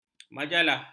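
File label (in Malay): "majalah", Malay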